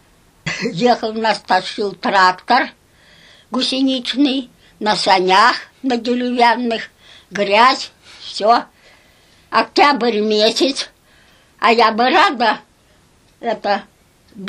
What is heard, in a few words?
middle ob dialect; пимы